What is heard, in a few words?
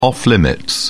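"off limits", British English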